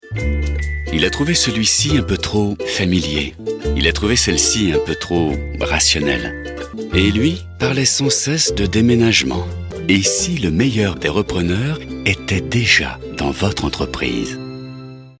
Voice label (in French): PUB, voix; Scop